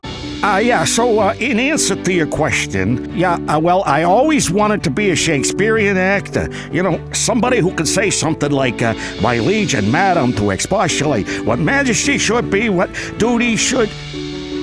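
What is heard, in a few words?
Boxer, voice over demos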